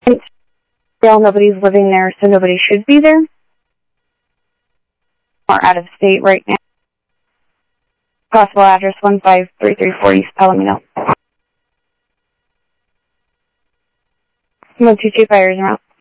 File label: Sheriff scanner audio